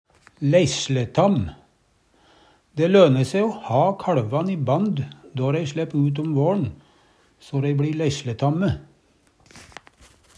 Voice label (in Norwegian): leisletam, Numedalsmål